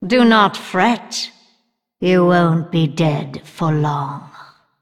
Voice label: Female Undead Loss; Anduin Mission 8 Loss

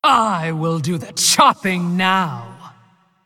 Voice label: Female Ancient Play

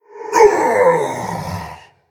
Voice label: Male Elemental Death

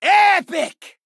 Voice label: Announcer Epic

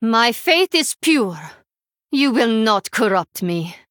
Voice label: Female Draenei; Story Hero Xyrella; BOM Tamsin Mission6 Exchange

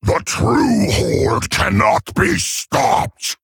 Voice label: Male Orc Play

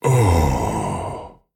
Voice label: Male Anubisath Death

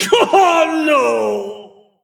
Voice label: Male Dwarf Death

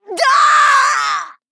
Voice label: Female Vulpine Death

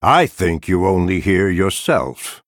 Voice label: Male Night Elf GREETINGS

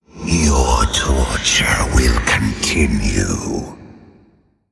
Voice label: Male Spirit Play

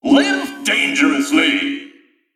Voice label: Mech Emote Attack

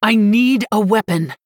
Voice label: Female Human ERROR NEED WEAPON

